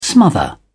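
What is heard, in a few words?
"smother", British English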